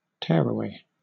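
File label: "tearaway", British English; pronunciations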